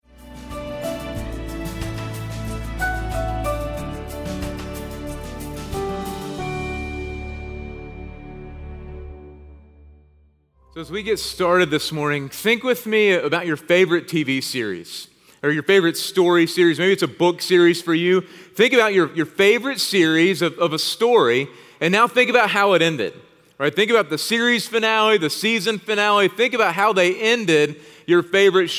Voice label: Sermon English